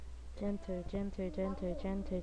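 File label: "gentle", spoken word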